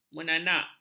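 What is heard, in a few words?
"menanak", Malay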